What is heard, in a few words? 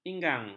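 "inggang", Malay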